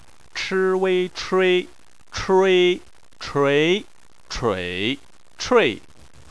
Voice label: "chui", Chinese

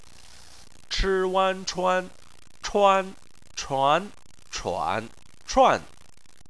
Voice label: "chuan", Chinese

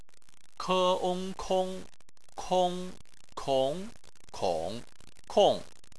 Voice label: "kong", chinese

